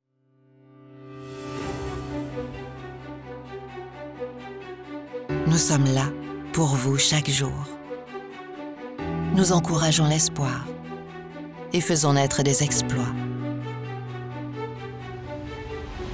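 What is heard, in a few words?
French Corporate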